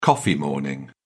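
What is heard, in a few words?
"coffee morning", English, British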